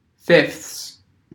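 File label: "fifths", US English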